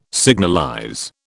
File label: "signalize", British